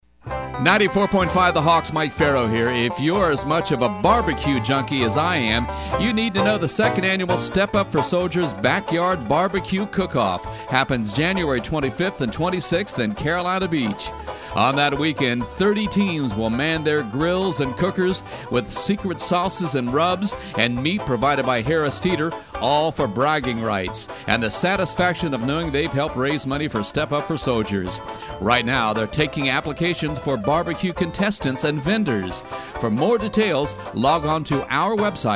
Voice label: promo; SUFS BBQ; bbqcookoff